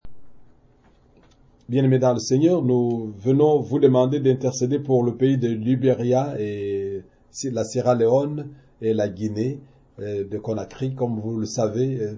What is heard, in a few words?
french prayer